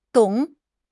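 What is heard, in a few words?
"dong3", Chinese; HSK; HSK2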